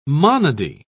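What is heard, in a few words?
"monody", English, British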